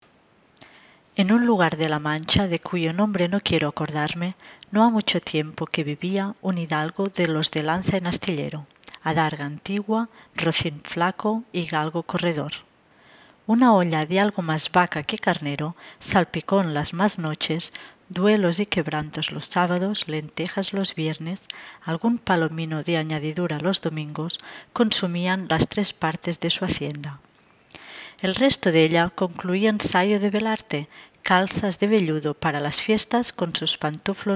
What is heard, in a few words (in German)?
spanisch